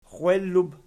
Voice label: "xwellub", Archi

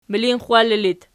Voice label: "milinxxwalli", Archi

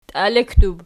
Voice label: "tiallekdub", Archi

